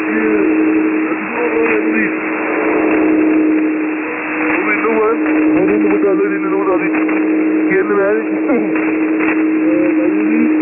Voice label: Indian Ocean traffic on 14001.5 kHz USB